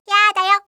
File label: yaadayo, voice reaction